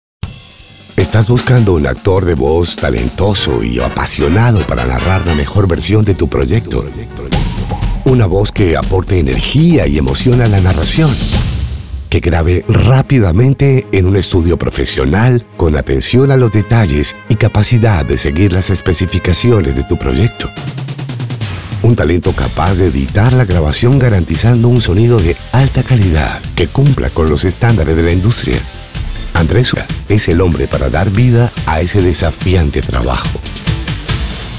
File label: latin american spanish commercial; United States